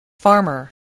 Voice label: "farmer", voice

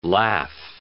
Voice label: "laugh"

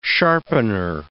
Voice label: "sharpener", English

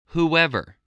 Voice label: "whoever", voice, english

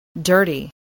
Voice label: "dirty", english spelling

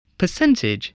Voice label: "percentage", English, voice

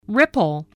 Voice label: "ripple", English spelling

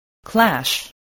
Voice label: "clash", English, voice

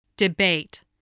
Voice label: "debate", English

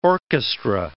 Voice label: "orchestra", English word

